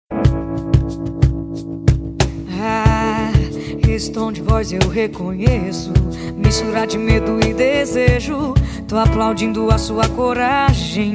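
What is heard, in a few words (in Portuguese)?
ROMANTICA DISTANTE male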